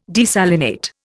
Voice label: "desalinate", Indian